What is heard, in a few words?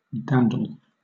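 "dandle", British English; pronunciations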